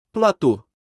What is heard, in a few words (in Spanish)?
"Plato" en portugues